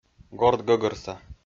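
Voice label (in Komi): "гортгӧгӧрса", Komi, male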